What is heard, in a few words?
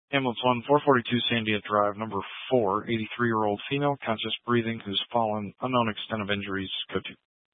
Fire Department Dispatch; Grand Junction